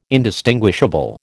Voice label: "indistinguishable", American

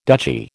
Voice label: "duchy", American

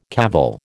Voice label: "cavil", American

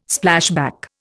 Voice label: "Splashback", Indian

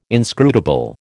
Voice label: "inscrutable", American

